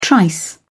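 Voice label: "trice", British English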